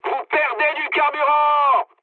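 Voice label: French tank damaged mood high; War Thunder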